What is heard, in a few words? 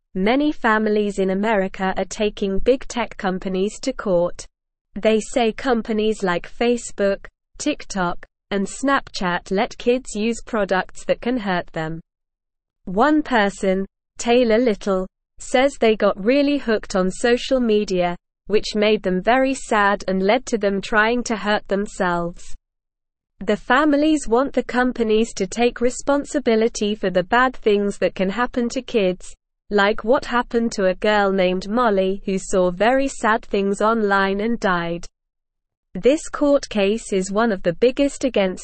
English Lower Intermediate SLOW Reading; English Newsroom